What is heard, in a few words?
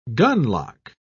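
"gunlock", British English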